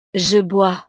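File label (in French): "je bois", French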